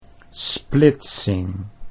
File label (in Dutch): "splitsing", Dutch